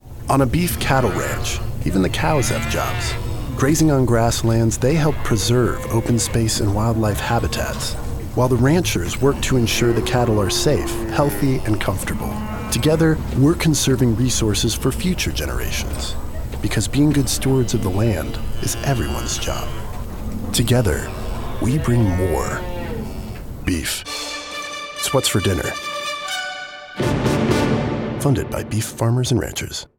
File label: radio